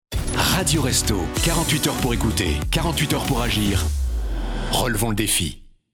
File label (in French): radio; restos 48h pour agir